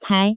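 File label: "pai", Taiwan Mandarin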